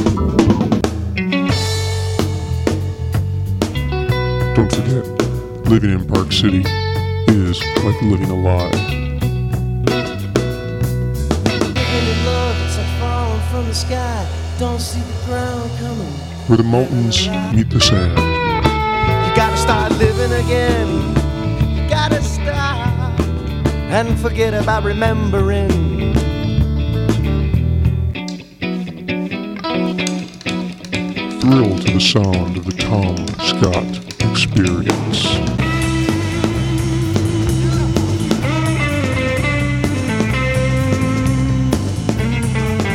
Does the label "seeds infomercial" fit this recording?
Yes